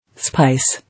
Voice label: "Spice", English